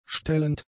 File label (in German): "stellend", German; adjectives